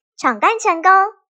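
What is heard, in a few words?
Success, Chinese; 人聲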